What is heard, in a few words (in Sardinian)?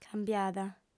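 "cambiada", female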